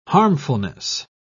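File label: "harmfulness", British English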